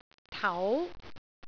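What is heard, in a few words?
"tau2", Cantonese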